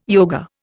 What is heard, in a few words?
"yoga", Indian